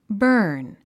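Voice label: "burn", English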